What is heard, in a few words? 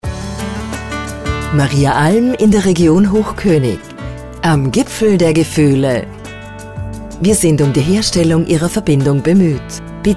onhold loop